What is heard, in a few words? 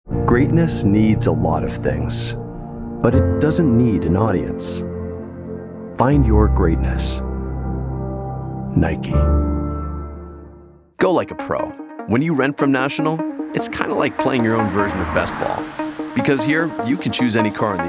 Commercial